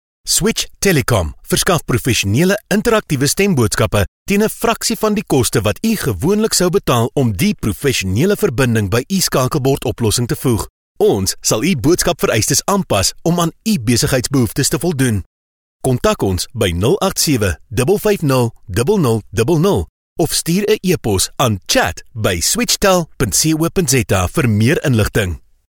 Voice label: Afrikaans; Switch Telecom; professional South African voice recording (IVR) service